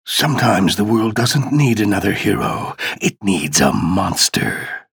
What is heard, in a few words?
chatter negative; ranger; respawn